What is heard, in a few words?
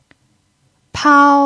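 "pao" first tone, chinese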